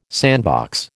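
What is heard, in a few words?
"sandbox", American English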